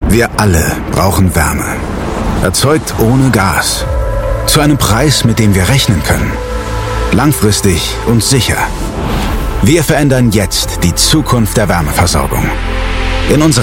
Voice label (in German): Imagefilm, German; Bayernwerk